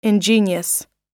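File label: "ingenious", US English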